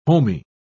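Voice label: "homy", British English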